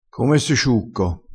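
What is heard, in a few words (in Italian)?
"se", dialettale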